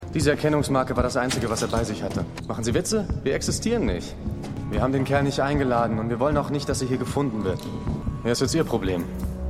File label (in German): CIA Mann